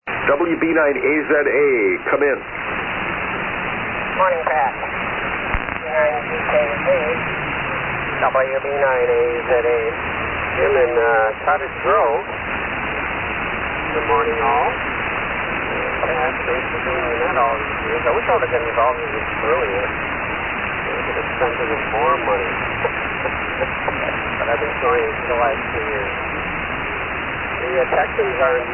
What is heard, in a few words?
Yaesu FT-101EE & FL-2100B Amp; Cottoge Grove, Wi.